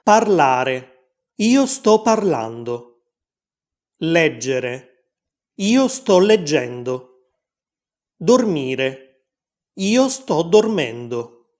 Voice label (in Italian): Easy Italian Presente progressivo Costruzione; Italianglot; Easy Italian Pack